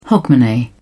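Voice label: "hogmanay", British English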